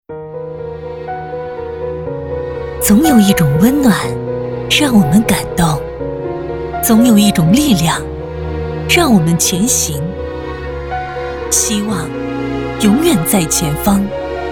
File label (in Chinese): 公益走心广告温暖沉稳走心